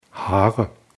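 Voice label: "Haare", German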